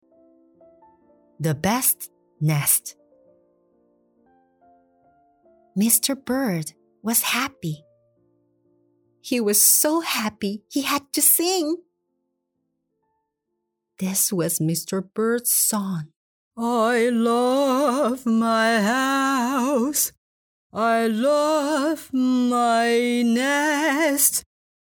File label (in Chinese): 英女 英文; the big blue book of beginner books; the best nest